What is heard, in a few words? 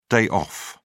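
"day off", English, British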